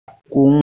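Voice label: "gong yuan", Mandarin Chinese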